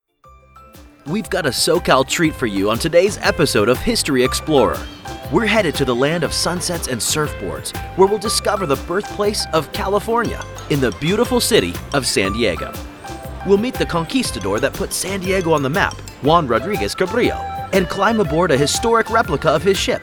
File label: Travel Show Intro